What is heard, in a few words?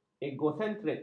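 "egosentrik", Malay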